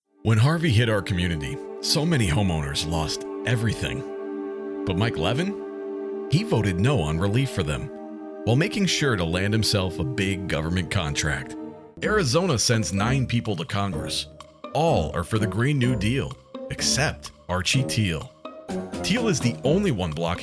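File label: Political, Warm, approachable, dynamic voiceover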